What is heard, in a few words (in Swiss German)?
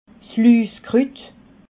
"luskrut", alsace